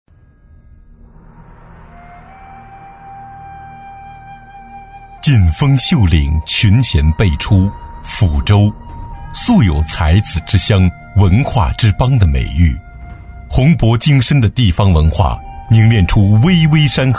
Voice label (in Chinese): 男, 企业宣传片, 大气厚重; 大气舒缓 大气浑厚